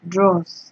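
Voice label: "dross", Nigerian Pidgin, female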